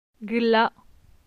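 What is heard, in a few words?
"Gla", French; phonetique; la syllabe a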